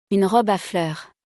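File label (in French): "Une robe a fleurs", French; vocabulaire; le lexique de l'habillement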